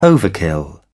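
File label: "overkill", British English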